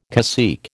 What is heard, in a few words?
"cacique", American